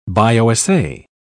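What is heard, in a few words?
"bio-assay", English, uk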